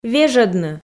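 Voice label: "вежӧдны", Komi, female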